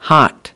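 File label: "hot", English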